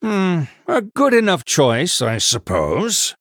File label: Male Human Recruit Medium Minion